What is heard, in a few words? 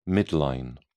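"midline", British English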